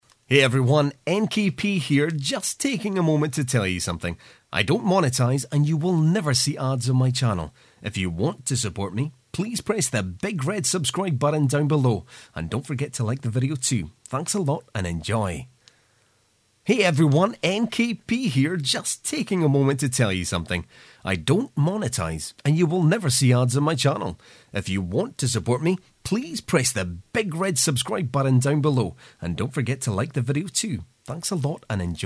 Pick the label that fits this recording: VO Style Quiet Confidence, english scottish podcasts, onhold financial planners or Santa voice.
english scottish podcasts